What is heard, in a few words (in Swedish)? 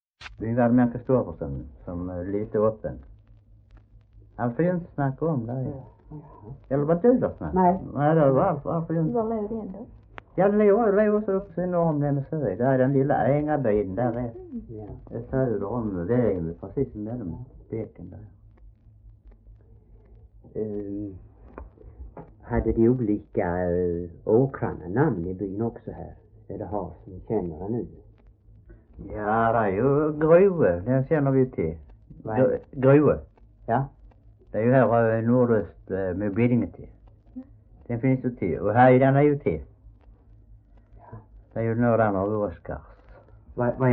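Äspö Skåne, Svenska sagor dialekter; Folkminnen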